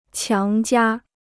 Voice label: "强加", Chinese

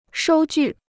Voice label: "收据", Chinese

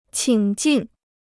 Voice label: "请进", Chinese

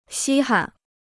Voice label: "稀罕", Chinese

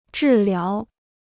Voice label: "治疗", Chinese

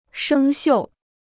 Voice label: "生锈", Chinese

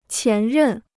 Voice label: "前任", Chinese

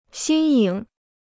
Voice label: "新颖", Chinese

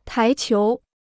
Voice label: "台球", Chinese

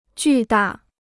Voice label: "巨大", Chinese

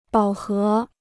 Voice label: "饱和", Chinese

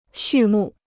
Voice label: "畜牧", Chinese

